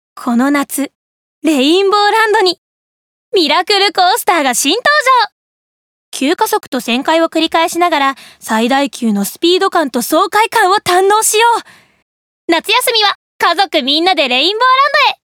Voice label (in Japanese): テーマパークCM